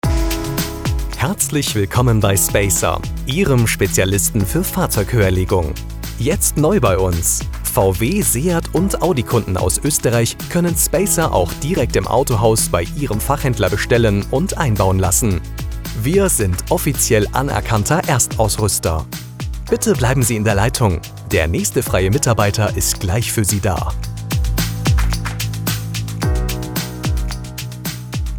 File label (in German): Telefonansage